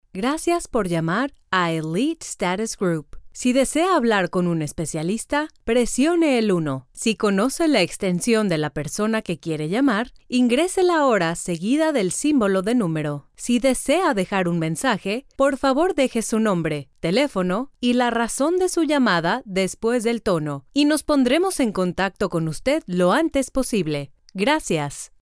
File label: spanish mexican ivr